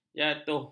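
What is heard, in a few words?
"jatuh", Malay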